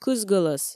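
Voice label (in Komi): "кыз гӧлӧс", Komi, female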